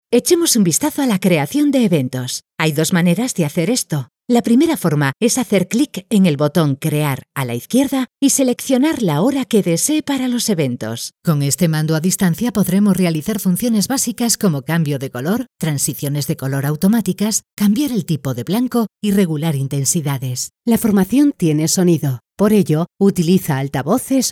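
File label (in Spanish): e-learning, Spain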